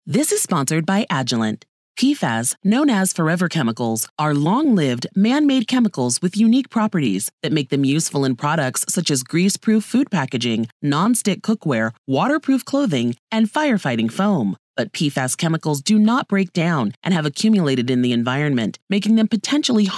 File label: audio news release; world water week pfas